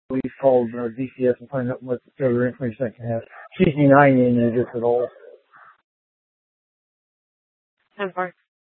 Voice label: Police Department Dispatch, Simulcast; Apache Junction; TOPAZ Regional Wireless Cooperative (TRWC); Mesa Simulcast

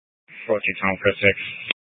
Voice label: Police Dispatch; Phoenix Police; Simulcast C- Chandler

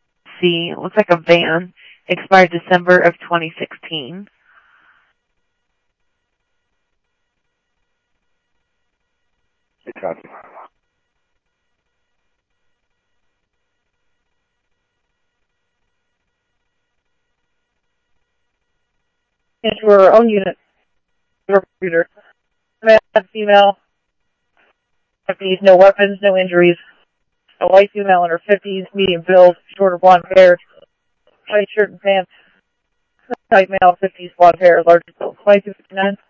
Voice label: Phoenix PD, scanner audio

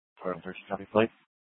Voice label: Mesa PD Fiesta Dispatch; Mesa Simulcast

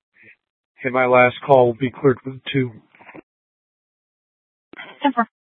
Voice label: Dispatch; Scottsdale

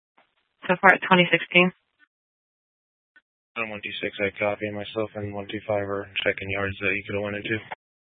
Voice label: Sheriffs Office, scanner

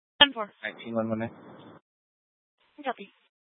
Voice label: Mesa PD Dispatch; TOPAZ Rgnl Wireless Co-op (TRWC); 1 Fiesta Dispatch

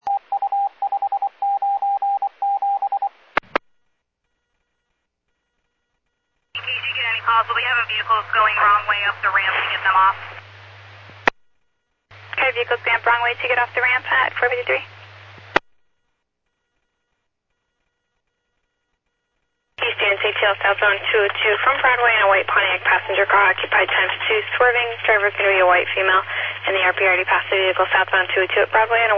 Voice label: Medevac, scanner; NativeAirAmb

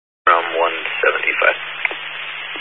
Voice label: Highway Patrol, scanner; DPS EAST; Dist 06 Casa Grande

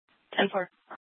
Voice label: PD Dispatch

